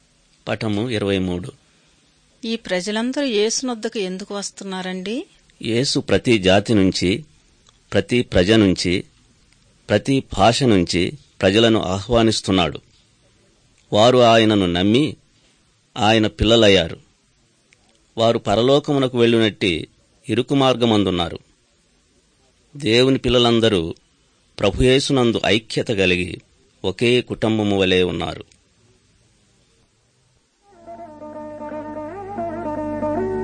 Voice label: good news telugu